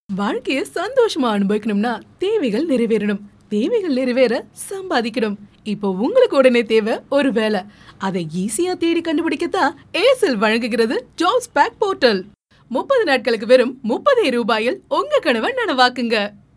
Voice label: IVR prompt tamil